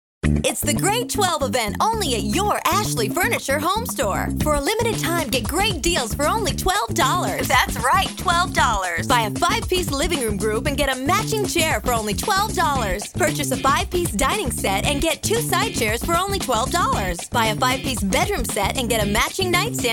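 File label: US, commercial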